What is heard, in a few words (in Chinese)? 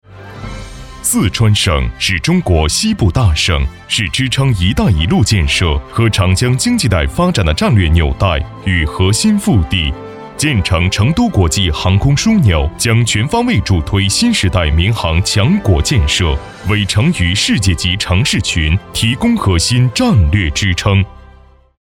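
专题大气激情, 浑厚大气 男, 磁性浑厚 沉稳大气; 成都国际机场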